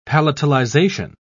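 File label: "palatalization", British English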